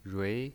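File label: "rui", Mandarin Chinese pinyin voice, second tone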